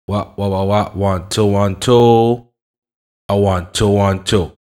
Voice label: "1 2 1 2", HipHop Vox; E-MU Producer Series Vol. 8 – Platinum Phatt